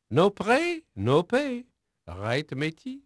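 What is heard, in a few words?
French male, voice; Pirates Of The Caribbean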